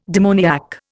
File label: "demoniac", Indian